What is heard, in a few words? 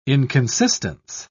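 "inconsistence", British English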